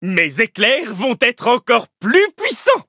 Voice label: levelup fr